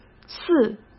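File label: "四", Mandarin Chinese; chinese-flashcards; hsk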